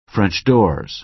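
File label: "french doors", American English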